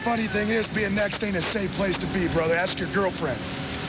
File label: girl